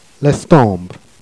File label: "stembre", Jèrriais